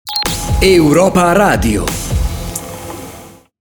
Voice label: Liner; Europa Radio